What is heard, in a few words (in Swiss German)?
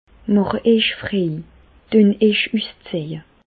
frei, Bas Rhin; medecine; déshabillez vous